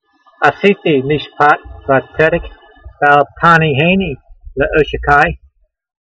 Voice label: voice, hebrew language; Psalm 119:121; a'seetee meeshpat va'tsedek, bal-taneehaynee le'oshe'kai